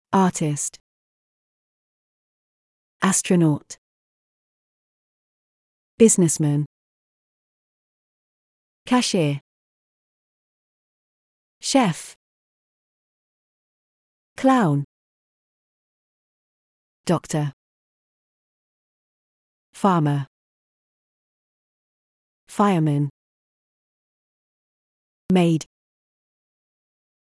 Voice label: uk job wordbank pic

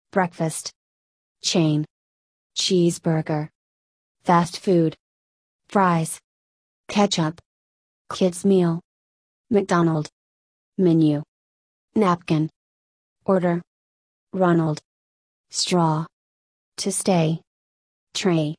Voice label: usa; mcdonalds

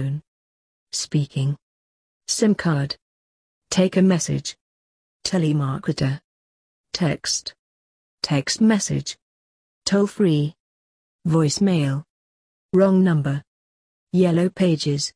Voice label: uk phone

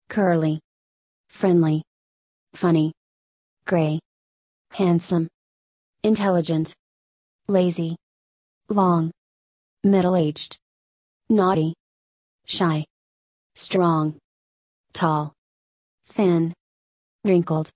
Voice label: usa; describe people

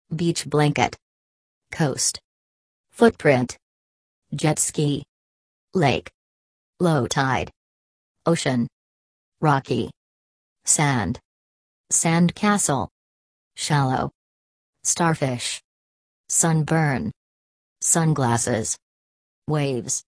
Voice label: beach american

